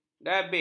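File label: "dabik", Malay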